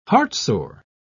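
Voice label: "heartsore", British English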